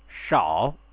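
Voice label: "shao", Chinese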